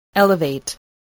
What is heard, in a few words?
"elevate", English